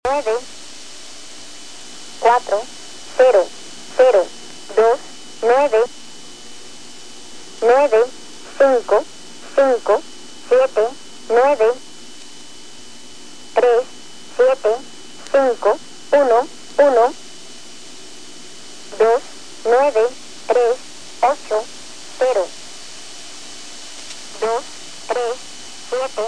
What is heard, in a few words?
number station